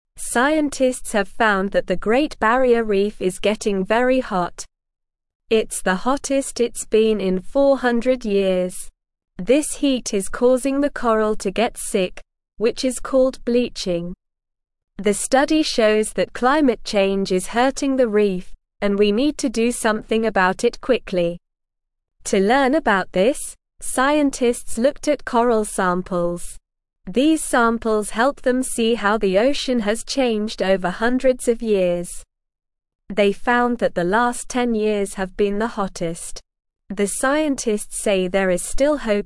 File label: English Lower Intermediate SLOW Reading; Help the Great Barrier Reef Stay Healthy and Safe; English Newsroom